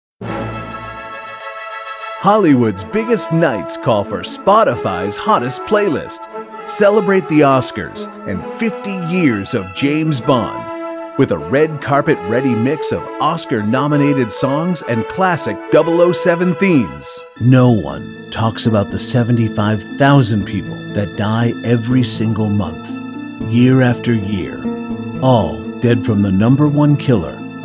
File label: Commercial